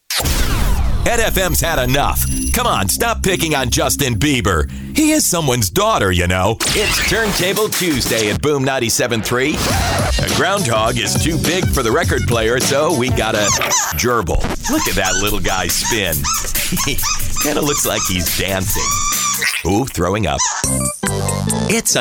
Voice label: radio imaging men personality